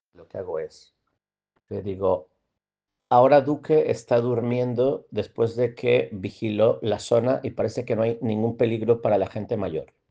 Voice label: Voice message